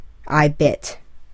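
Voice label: "I bit", English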